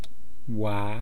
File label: "waa", East Cree syllabics; language